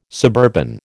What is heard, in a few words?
"suburban", American